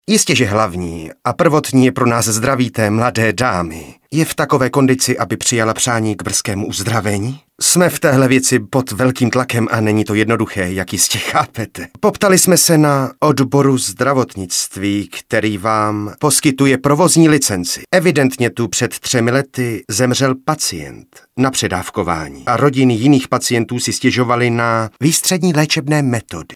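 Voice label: Male Czech drama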